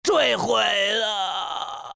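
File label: death, Chinese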